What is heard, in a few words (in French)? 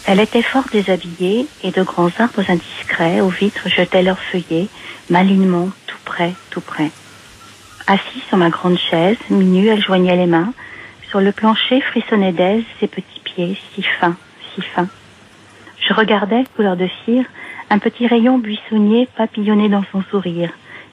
Poeme de Rimbaud, repondeur